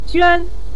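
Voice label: "juan", Mandarin Chinese, neutral tone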